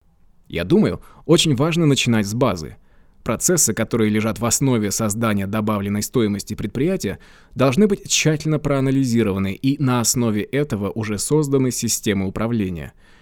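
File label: Russian; GoLocalise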